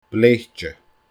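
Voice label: "bleithte", Scottish Gaelic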